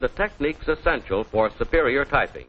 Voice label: techniques superior typing, Quirky Female Typing Instructor; VOCALS and SPOKEN WORD